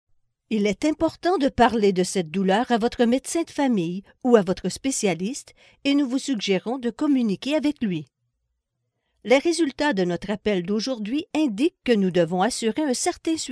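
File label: French Canada, female, voice over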